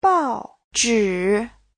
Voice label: "bao4zhi3", Chinese